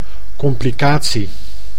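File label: "complicatie", Dutch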